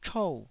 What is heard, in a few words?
"chou4", taiwanese